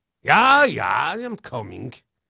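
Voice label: Dutch male, voice; Pirates Of The Caribbean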